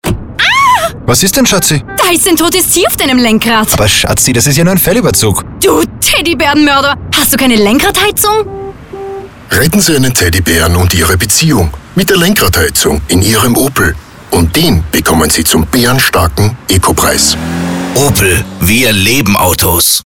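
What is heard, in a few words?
german austrian female commercial; voice demos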